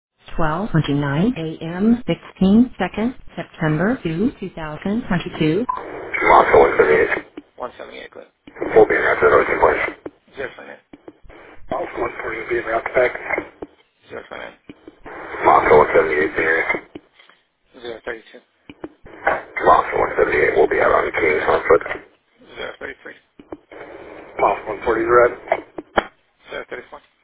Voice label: noise complaint radio traffic